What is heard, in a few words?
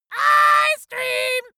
dwarf scream ice cream english